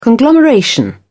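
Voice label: "conglomeration", British English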